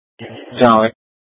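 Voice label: police dispatch, Scottsdale PD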